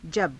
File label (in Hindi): "jaba", Hindi